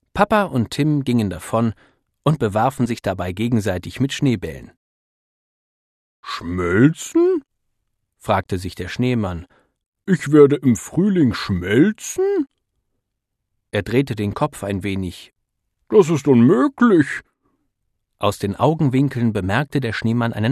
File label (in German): Hoerbuch